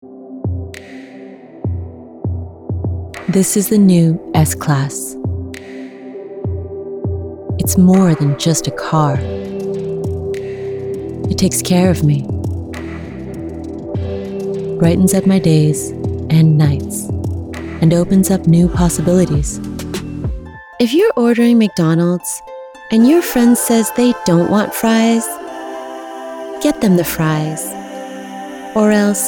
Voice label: TV Commercial